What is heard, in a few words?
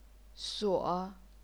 "suo3", chinese pinyin